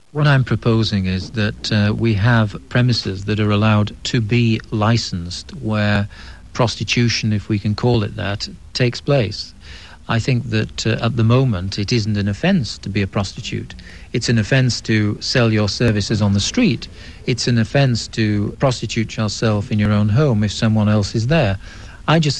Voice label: British Northern, dialect

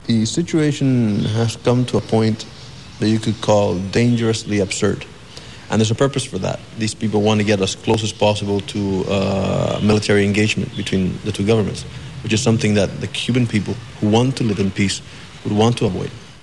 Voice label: Hispanic Cuban, Non-Native Speakers, dialect

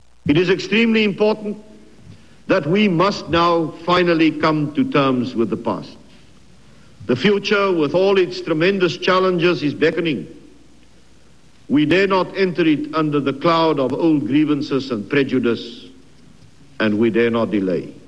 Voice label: South African, dialect; F.W. DeKlerck

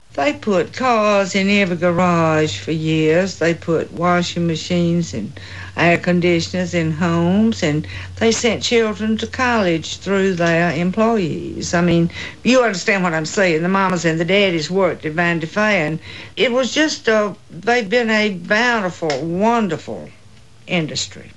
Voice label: Alabama female, dialect

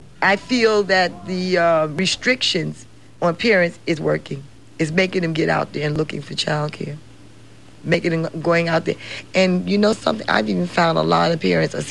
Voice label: AAE Brooklyn, African American

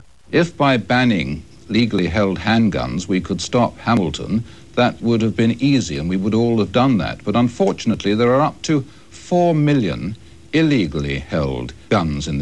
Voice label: British RP, dialect

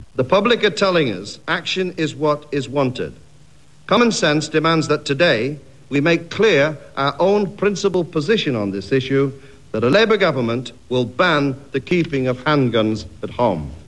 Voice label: British maybe Northern, dialect

